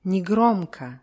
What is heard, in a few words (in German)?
"negromko", russische